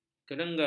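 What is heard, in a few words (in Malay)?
"kerengga", Malay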